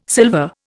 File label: "silver", Indian